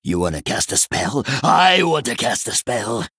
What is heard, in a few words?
Male Book Play